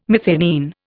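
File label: "methionine", English, Indian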